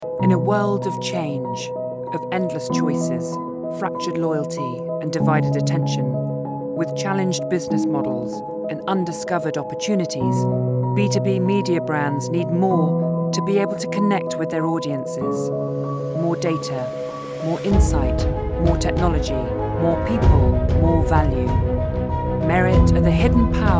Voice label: Serious Inspiring; Merit

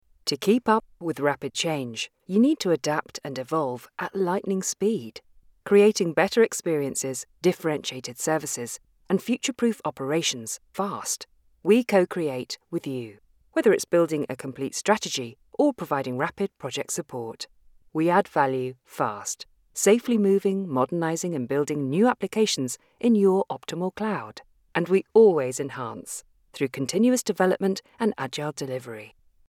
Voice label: Professional Friendly; Fujitsu

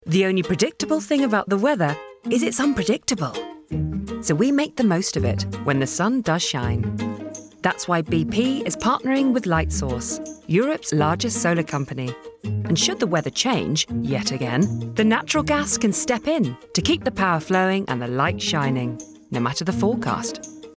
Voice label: Conversational Bright; BP

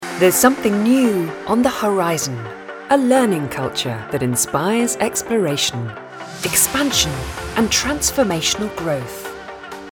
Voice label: inspirational warm; Training Company

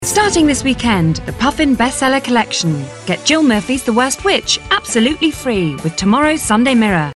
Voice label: Upbeat Bubbly; Puffin

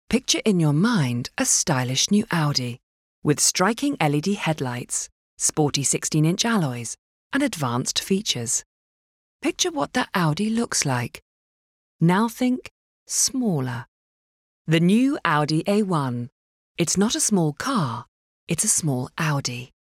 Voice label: Elegant Premium; Audi

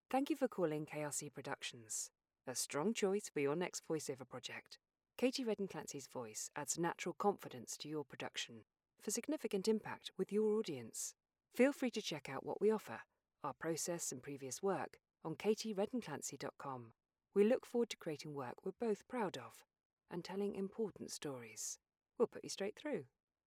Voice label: Telephony

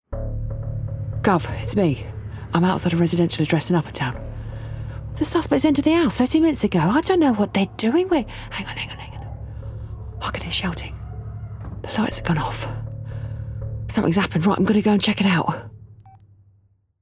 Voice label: Innocent Detective Gaming

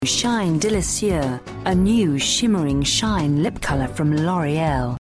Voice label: Alluring Silky; L'Oreal

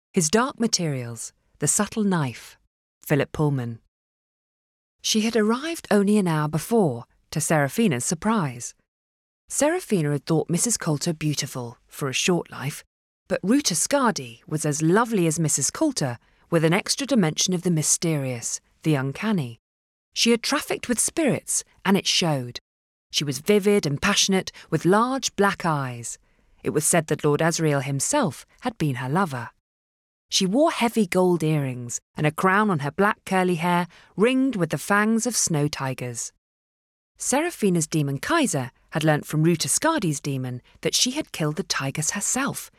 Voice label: Audiobook Third Person; Audiobook Demo

